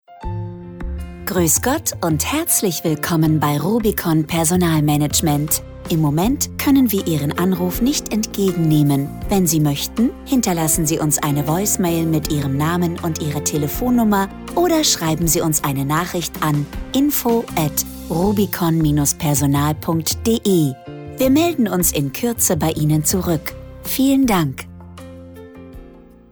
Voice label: Ansage; Telefonansage Personalvermittlung

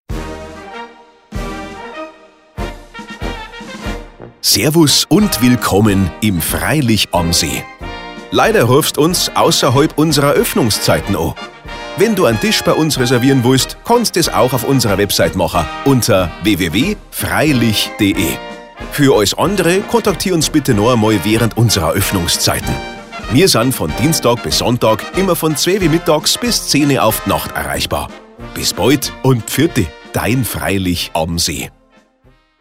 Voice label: Bayerisch, Telefonansagen; Lusch Steuerexperten

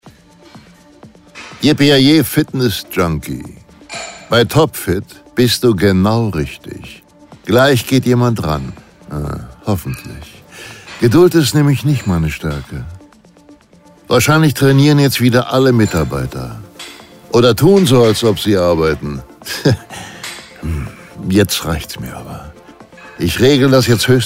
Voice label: Telefonansage; TopFit; Bruce Willis; Telefonansagen Fitnessstudio – Studio – GYM – Sportpark

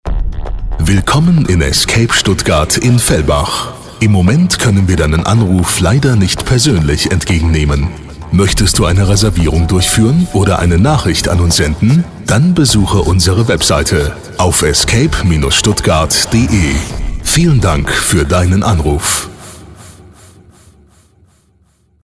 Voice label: AB Ansage; Escape

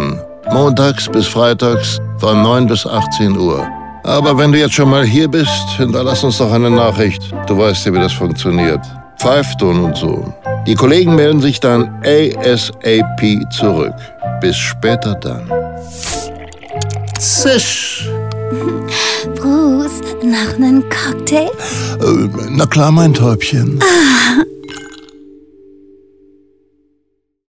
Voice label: Feierabend Ansage; Bruce Willis

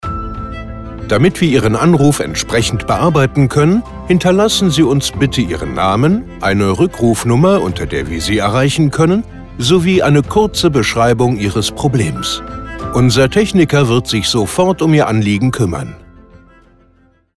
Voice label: Service Ansage; echten stimmen

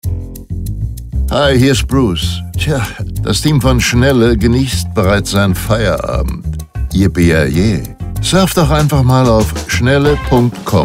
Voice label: Schnelle Anrufbeantworter; Anrufbeantworter Bruce Willis: Yippie Yah Yei!

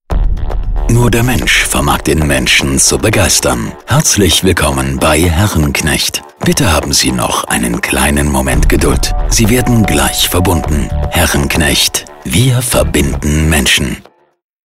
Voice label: telefonansagen; herrenknecht

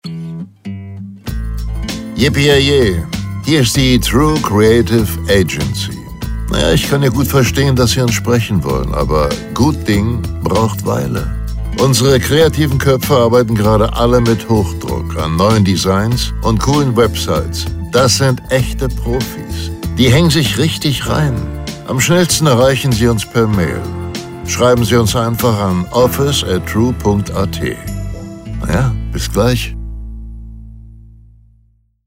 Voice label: Ansage

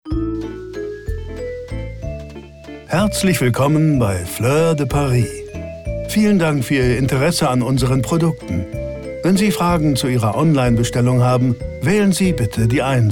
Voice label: Ansage; Fleurs de Paris

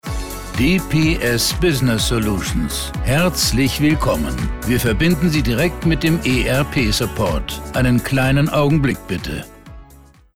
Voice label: Ansage vor Melden; DPS

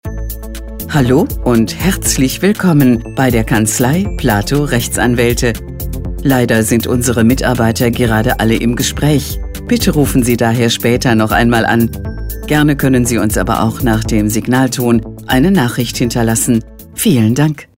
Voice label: Ansage stereo; Telefonansage Kanzlei

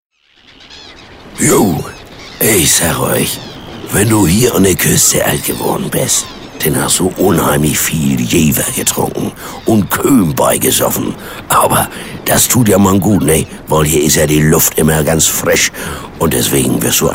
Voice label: Hamburgisch; Telefonansage

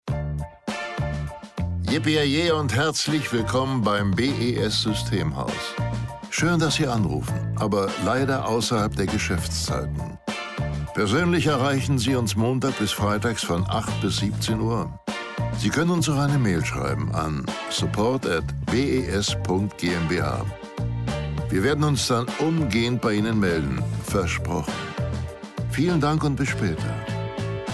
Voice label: AB Ansage; Systemhaus

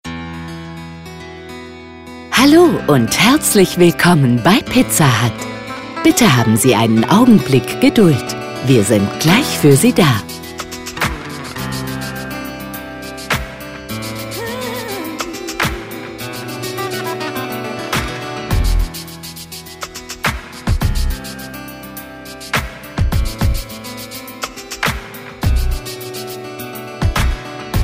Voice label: Ansage vor Melden; Pizza Hut

